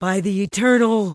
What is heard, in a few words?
Tauren Female Official NPC Pissed